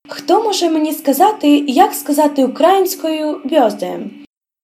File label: dialogue, Ukrainian